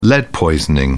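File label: "lead poisoning", English, British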